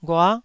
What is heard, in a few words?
"ghua9", Taiwanese syllable